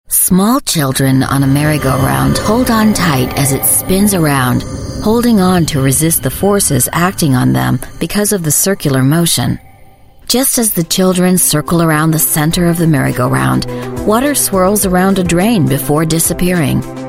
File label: US English Female Narration